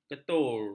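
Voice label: "ketur", Malay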